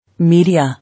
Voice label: "Media", English

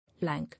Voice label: "Lank", English

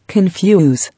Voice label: "Confuse", English

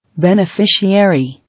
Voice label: "Beneficiary", English

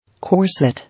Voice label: "Corset", English

